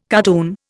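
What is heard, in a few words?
"cardoon", Indian English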